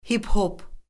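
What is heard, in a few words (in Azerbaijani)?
"hip-hop", Azerbaijani; Orfoqrafiya Lüğəti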